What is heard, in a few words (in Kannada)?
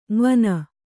"ಙವನ", Kannada